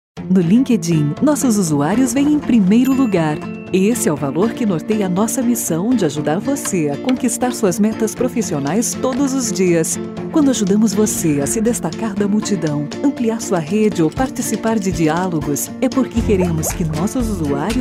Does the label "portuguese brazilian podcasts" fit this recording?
Yes